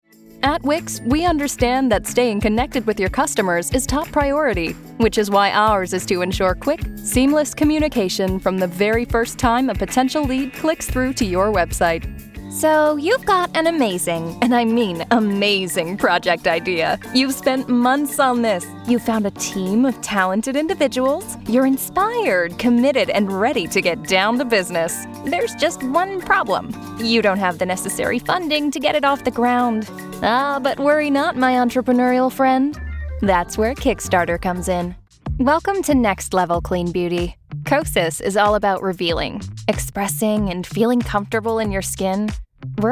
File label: english canadian corporate videos